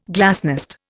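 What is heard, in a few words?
"glass", Indian; Dictionary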